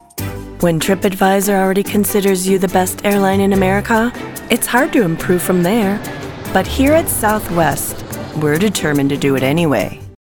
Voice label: Commercial; TripAdvisor